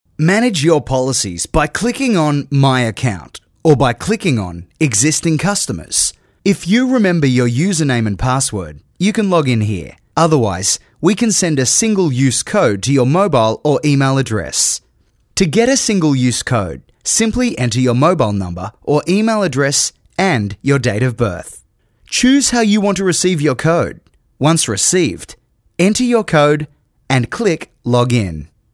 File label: Elearning